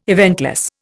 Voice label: "eventless", Indian